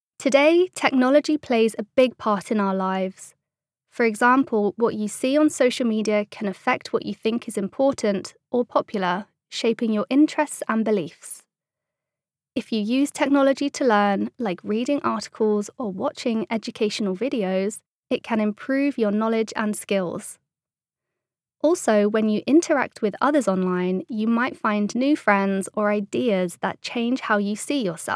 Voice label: uk fagbok, english-uk, female